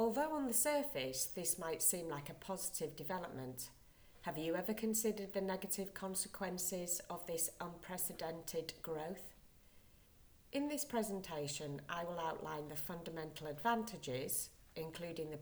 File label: c1 monologo english globalisation; eoi; speaking exam